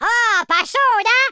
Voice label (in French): fatality, French speech